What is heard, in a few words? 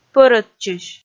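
"пӧрӧдчысь", Komi, female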